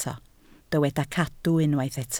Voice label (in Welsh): "cadw" Welsh